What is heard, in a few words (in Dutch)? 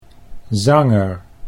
"zanger", Dutch